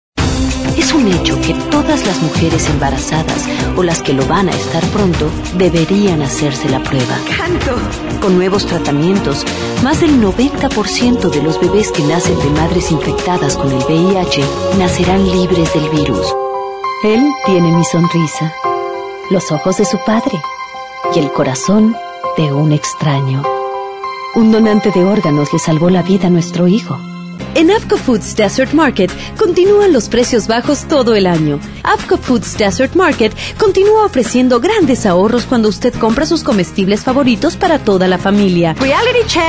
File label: Latin